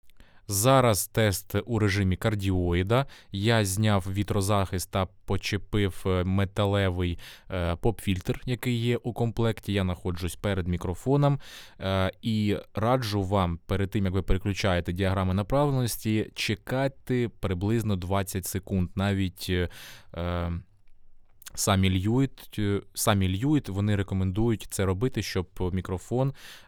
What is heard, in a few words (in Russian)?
lewitt lct 640 ts kardioida; Lewitt LCT 640 TS – це конденсаторний, широкомембранний мікрофон за 1000 $; Кардіоіда